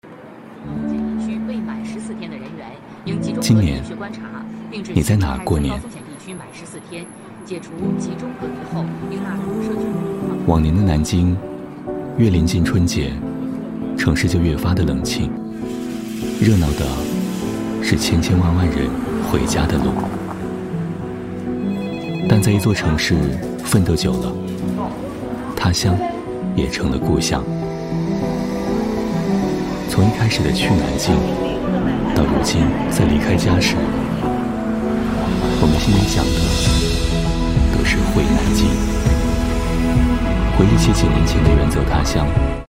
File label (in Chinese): 男 国 大音 温情旁白; 南京金鹰